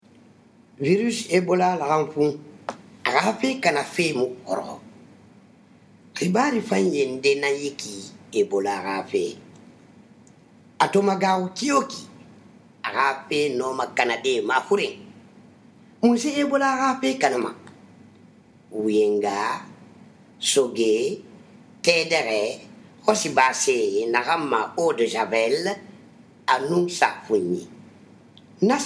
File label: sousou; ebola; radio-spots; vhf